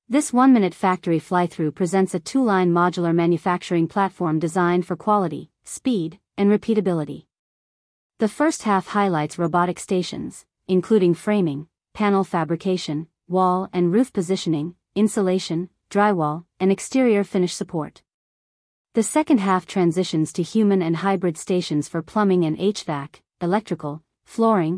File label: voiceover only